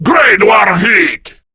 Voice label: Reload, Male